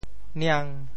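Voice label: "niang5", Teochew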